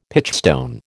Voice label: "pitchstone", American